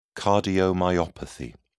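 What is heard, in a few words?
"cardiomyopathy", British English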